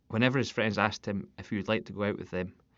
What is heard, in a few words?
scottish